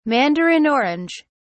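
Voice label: "mandarin orange", British English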